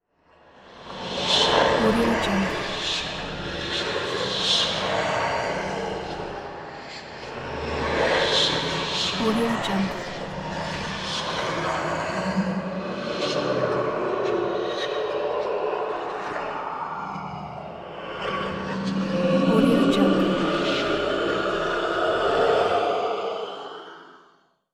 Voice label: demon whisper